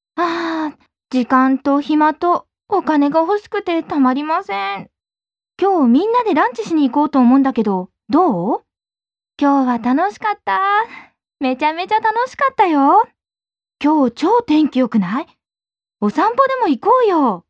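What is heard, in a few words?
Japanese, female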